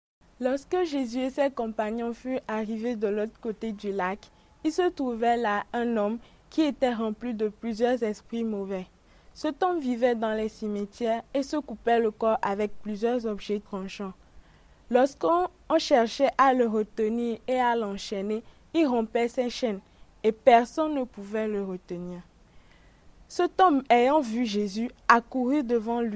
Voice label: French; Jésus et le démoniaque; Demons Cast Out

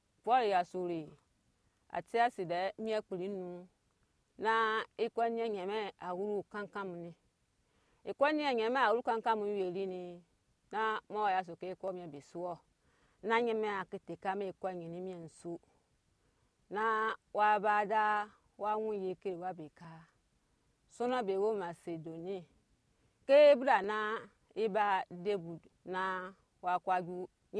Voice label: Anufo; Premiere missionnaire de Paul et Barnabas; Paul and Barnabas sent out from Antioch

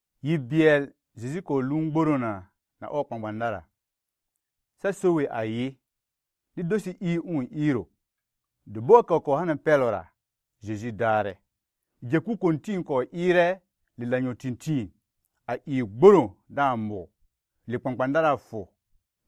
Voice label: Lobiri; Jiɛ Kur Thimir; Jesus Calms the Storm

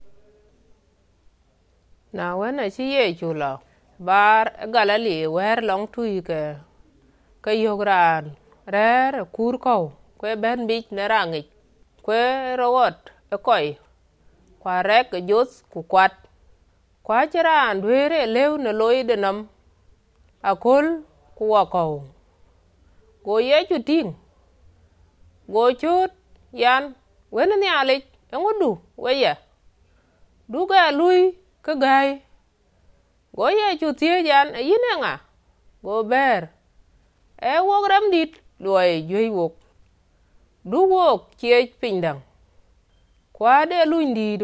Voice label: Dinka Padong; Demons Cast Out

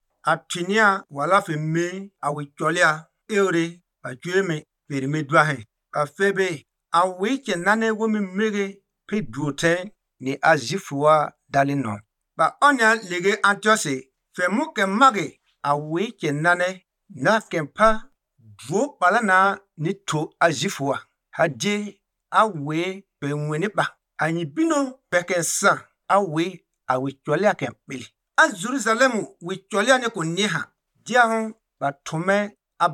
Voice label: Pougouli; Expansion de leglise a Antioch; The Church Expands to Antioch

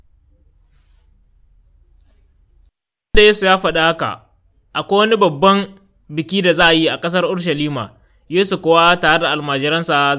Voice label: Hausa Niger; Le Roi Arrive; Triumphal Entry

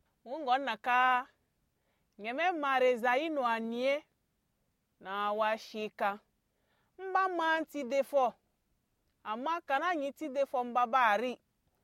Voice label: Anufo; Prophétie d’Esaïe sur La souffrance du Sauveur; Suffering Servant

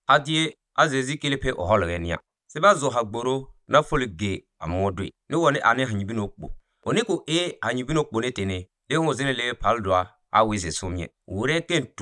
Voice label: Pougouli; Jesus marche sur leau; Jesus Walks on Water

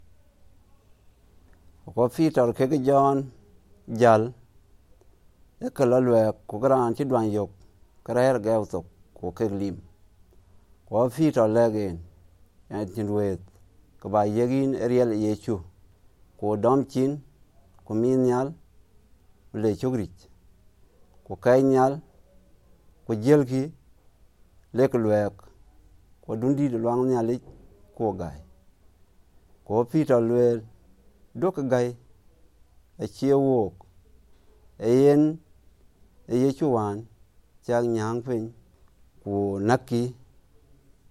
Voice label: Dinka Padong; Peter and John Heal a Crippled Man

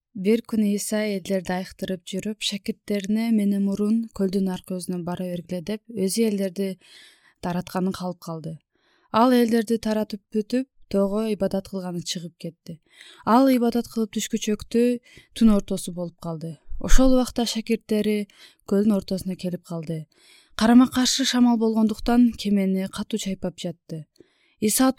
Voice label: Kyrgyz; Jesus Walks on Water

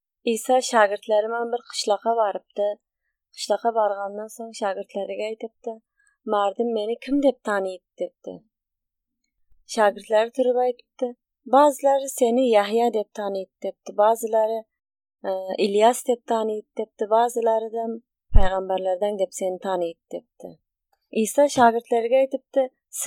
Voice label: Uzbek; عیسی نینگ‌ شاگردلریدن کیم بولگه نینی سوره گنی; Who Do People Say I Am

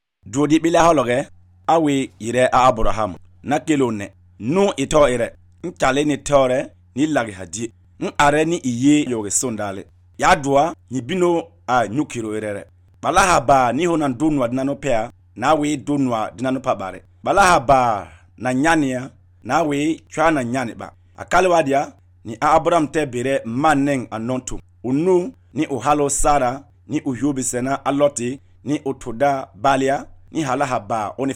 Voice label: Pougouli; Lappel dabraham; God Calls Abraham

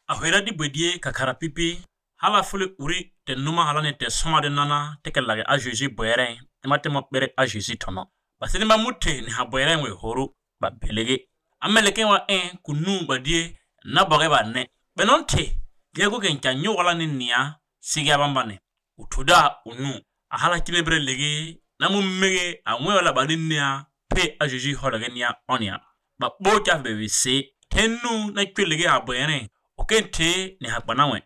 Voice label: Pougouli; La Resurrection; The Resurrection of Jesus

